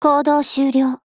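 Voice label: operation over, Japanese; HK416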